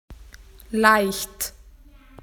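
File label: "light", Australian English; PictureDictionary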